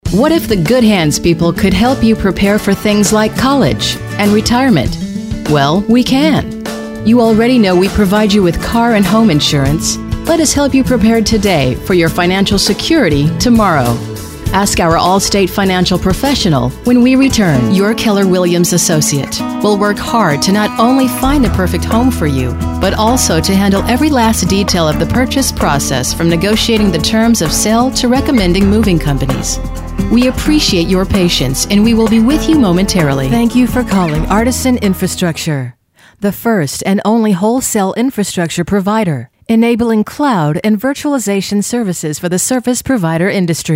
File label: Phone Messages